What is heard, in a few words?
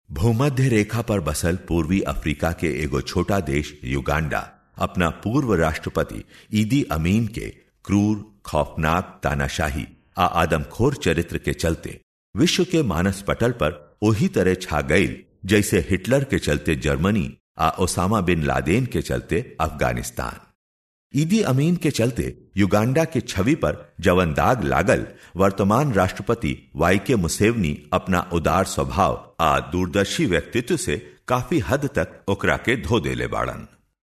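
Bhojpuri, male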